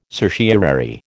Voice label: "certiorari", American